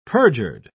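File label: "perjured", British English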